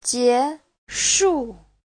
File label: "jie2shu4", chinese; 结束; Giáo trình chuẩn HSK3